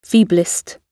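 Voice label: "feeblest", British English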